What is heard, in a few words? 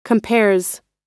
"compares", us